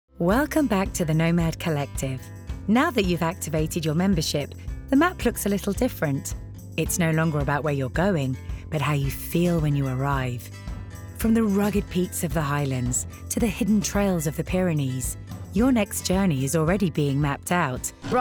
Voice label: Corporate Demo